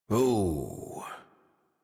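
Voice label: horse stop walk adult, English; Warframe; Duviri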